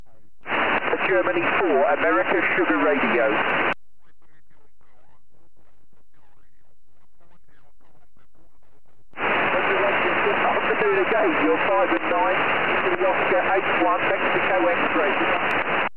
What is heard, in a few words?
qso